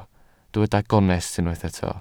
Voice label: "gonest", Welsh

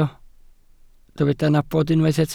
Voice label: "nabod", Welsh

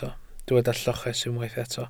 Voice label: "lloches", Welsh

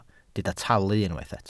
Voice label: "talu", Welsh

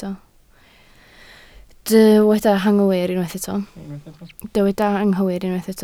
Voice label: "anghywir", Welsh

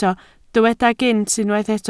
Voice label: "gynt", Welsh

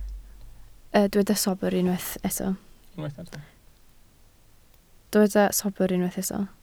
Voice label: "sobr", Welsh

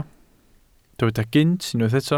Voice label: "gynt", Welsh

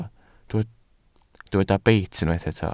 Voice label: "beit", Welsh

